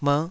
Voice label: "merh5", taiwanese

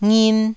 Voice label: "ngin1", taiwanese syllables

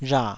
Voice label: "rha3", taiwanese

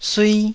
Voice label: "sui1", taiwanese Syllables

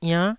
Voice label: "iann9", Taiwanese syllable

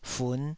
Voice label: "fun5", Taiwanese syllable

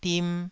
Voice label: "dim2", Taiwanese syllable

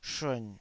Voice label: "shun3", taiwanese Syllables

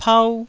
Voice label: "pau1", taiwanese

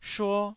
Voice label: "shuo1", taiwanese

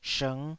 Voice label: "shng5", taiwanese Syllables

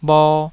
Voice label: "bho2", taiwanese Syllables

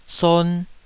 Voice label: "son2", Taiwanese syllable

